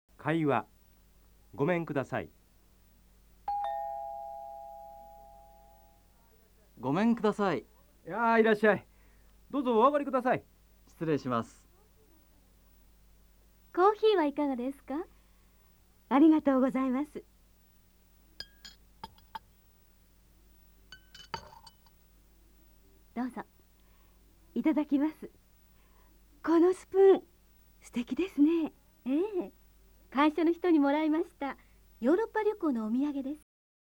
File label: nihongo dialog, jap